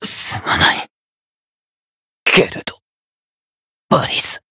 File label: retire, jp